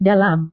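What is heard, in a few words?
"dalam", Indonesian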